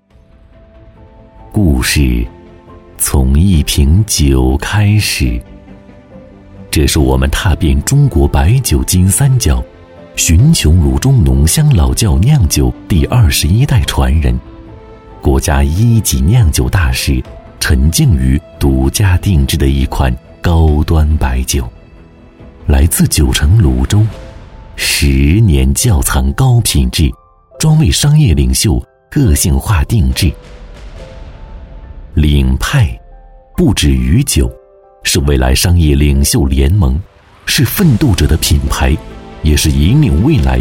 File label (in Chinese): 男 专题 招商宣传片; 千秋领派